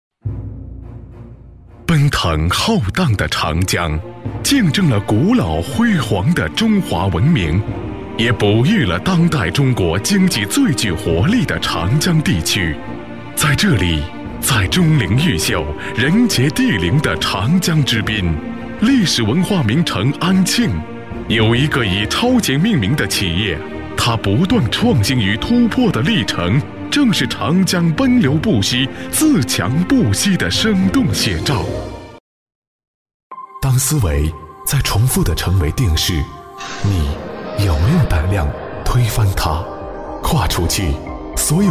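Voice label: chinese male commercial; voice demos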